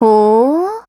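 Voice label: AGREE Japanese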